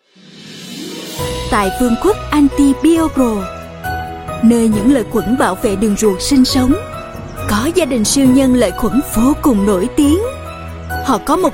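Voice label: Vietnamese Voice Sample Female South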